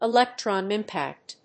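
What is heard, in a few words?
"electron impact", English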